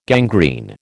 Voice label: "gangrene", American